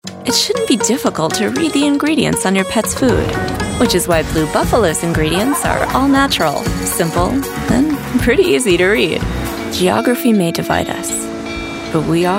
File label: Voice Talent Commercial